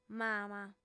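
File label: "mama", female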